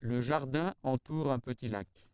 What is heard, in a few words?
male Trans LPC